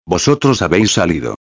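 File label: "vosotros habeis salido", Spanish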